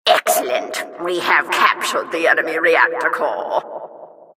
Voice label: announcer capture flag team captured